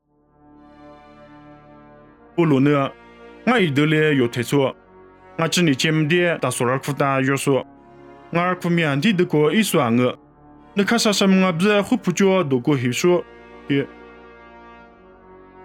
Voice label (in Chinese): 凉山彝语; 地中海大数